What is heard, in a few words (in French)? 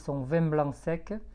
schwa son vin blanc sec